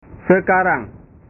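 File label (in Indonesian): "sekarang", Indonesian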